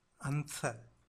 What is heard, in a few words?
"anthfa", Tamil